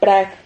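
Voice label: "prag", Spanish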